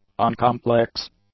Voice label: "uncomplex", English; adjectives

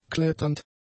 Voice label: "kletternd", German; adjectives

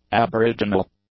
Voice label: "aboriginal", english; adjectives

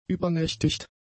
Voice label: "übernächtigt", German; adjectives

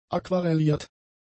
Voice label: "aquarelliert", German; adjectives

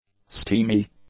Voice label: "steamy", English; adjectives

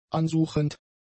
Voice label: "ansuchend", German; adjectives

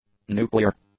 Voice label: "nuclear", english; adjectives

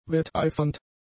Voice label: "wetteifernd", German; adjectives

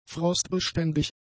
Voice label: "frostbeständig", German; adjectives

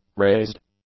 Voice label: "raised", English; adjectives

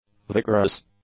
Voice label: "vigorous", English; adjectives

